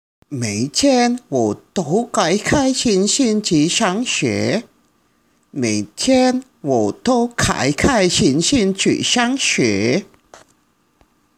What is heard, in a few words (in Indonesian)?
Percakapan; 阅 读 – Membaca